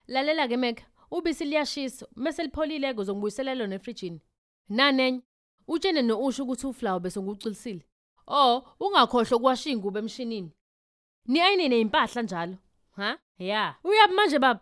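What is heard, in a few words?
Zulu female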